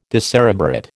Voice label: "decerebrate", American